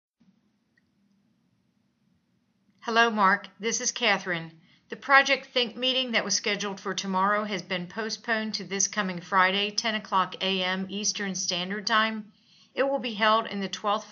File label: Voicemail; The Business of Thinking® Series Start Communicating